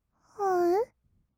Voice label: あう, kawaii voice; ASMR; 色々なワンショット

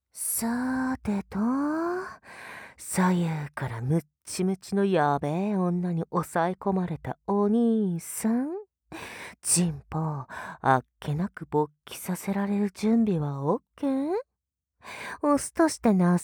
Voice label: 耳舐めフルコース, ASMR

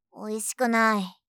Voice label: "おいしくない", 相づち・反応系【ネガティブ】; ASMR

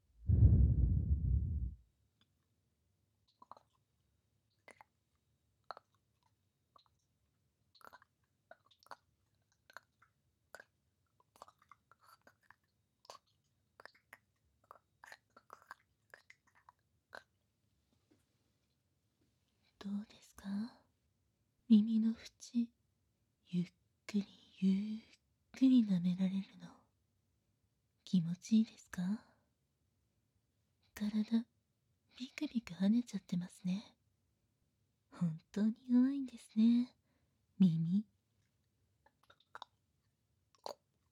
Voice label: クールナースのぐっぽり耳舐め乳首責め(効果音なし); 搾精外来

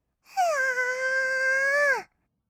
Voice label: うおーーー, kawaii voice; ASMR; 色々なワンショット

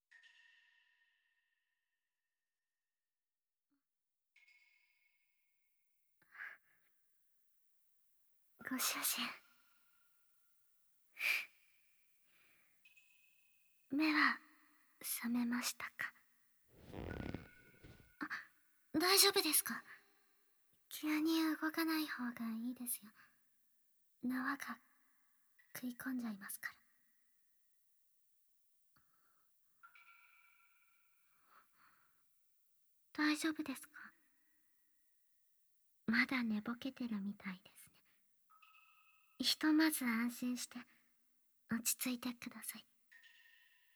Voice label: 好きです、ご主人……, ASMR

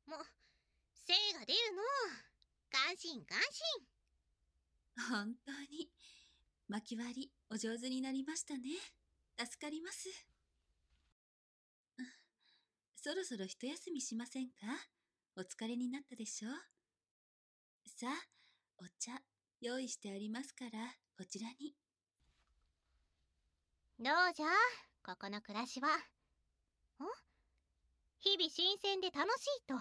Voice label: 台詞のみ, ASMR; 神奉仕～両耳舐め＆Ｗフェラチオ～

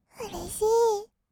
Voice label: "うれしいー", kawaii voice; ASMR; 色々なワンショット

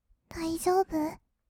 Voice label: "だいじょーぶ？", kawaii voice; ASMR; 色々なワンショット

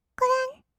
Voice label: 「くるん」, kawaii voice; 1200 kawaii voice!!; 色々なワンショット

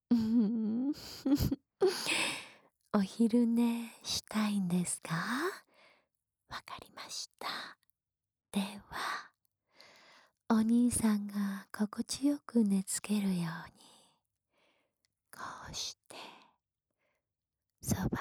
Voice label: 安眠用添い寝ループ（左耳）, ASMR

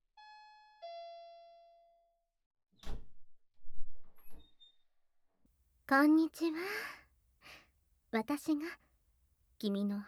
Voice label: 耳舐めカウント手コキ, ASMR

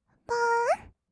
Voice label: "ぽーん", kawaii voice; 1200 kawaii voice!!; 色々なワンショット

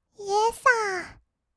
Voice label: "イェッサー", kawaii voice; ASMR; 色々なワンショット

